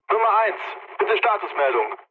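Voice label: German chat, speech; War Thunder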